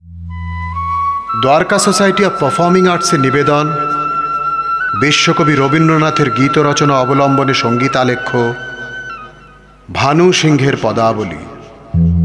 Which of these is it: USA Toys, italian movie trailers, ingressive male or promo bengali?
promo bengali